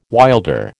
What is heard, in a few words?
"wilder", American